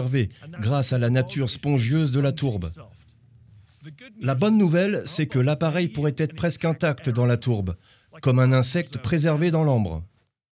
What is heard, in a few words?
spitfire doc voice over; Documentaire / Narration